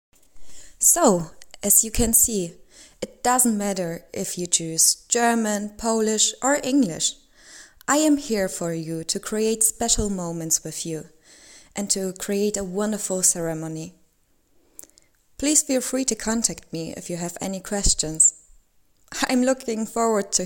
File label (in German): englisch sprachprobe